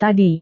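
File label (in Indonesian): "tadi", Indonesian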